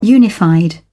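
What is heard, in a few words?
"unified", British English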